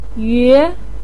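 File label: "yue" second tone, Mandarin Chinese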